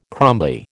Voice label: "crumbly", American